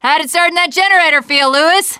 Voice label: bridge available, teengirl